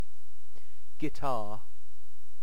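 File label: "guitar", British English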